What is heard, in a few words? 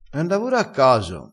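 "a caso", Italian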